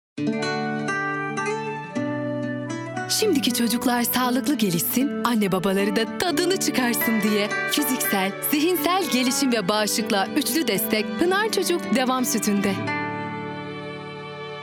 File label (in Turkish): sicak samimi